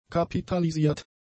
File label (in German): "kapitalisiert", German; adjectives